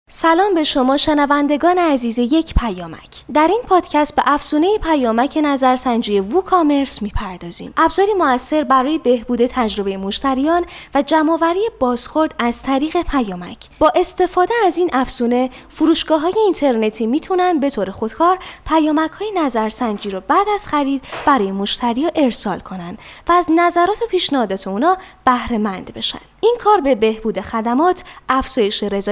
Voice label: narrator